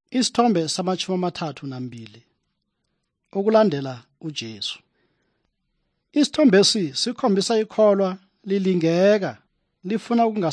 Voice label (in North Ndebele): good news ndebele southern, drama